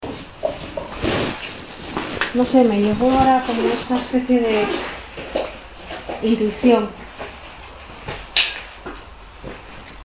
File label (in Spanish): voz femenina